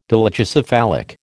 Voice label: "dolichocephalic", American